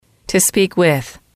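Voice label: "to speak with", English, female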